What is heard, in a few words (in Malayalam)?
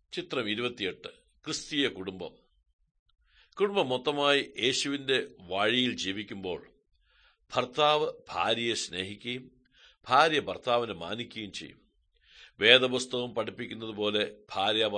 good news malayalam, drama